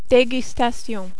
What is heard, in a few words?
"degustation", French